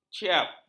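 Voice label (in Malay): "ciap", Malay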